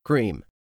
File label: "CREAM", English; 3000 words